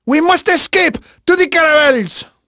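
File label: Portuguese male, voice; Pirates Of The Caribbean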